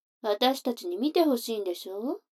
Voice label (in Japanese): 女の子台詞, ASMR